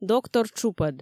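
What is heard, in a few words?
"доктор тшупӧд", Komi, female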